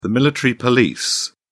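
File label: "military police", British English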